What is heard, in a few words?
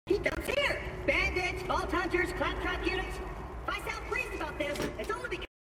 voice acting; borderlands 2